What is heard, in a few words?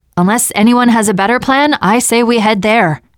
world small town intro, teengirl, voice; player; survivor